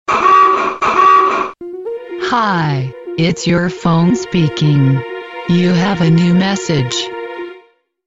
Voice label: Phone Speaking Txt